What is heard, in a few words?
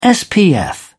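"spf", British English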